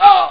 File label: man; arcade